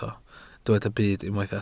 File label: "byd", Welsh